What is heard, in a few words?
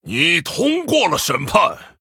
Male Human Well Played; zh